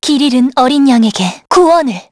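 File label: Vox Skill, Korean; kingsraid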